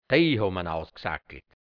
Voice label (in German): "de(i) hobm an ausgsacklt", hianzisch Mundart Burgenland; aussackln